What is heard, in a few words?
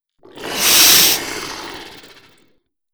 Greetings reptilian special; stellaris